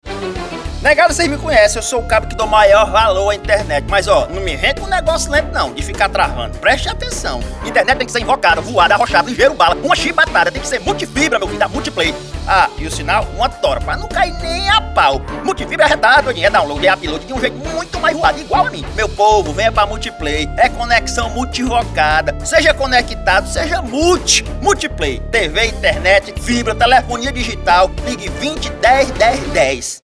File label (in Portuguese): SPOT; Fliperama Multiplay Institucional